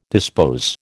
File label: "dispose", American